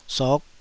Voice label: "sok5", Taiwanese syllable